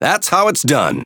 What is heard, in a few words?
Speech Quick Commands Good Game, EN; World Of Warships